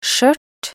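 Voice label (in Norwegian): "skjørt", Norwegian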